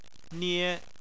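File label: "nie" first tone, Chinese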